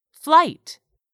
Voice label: "flight", English; 3000 words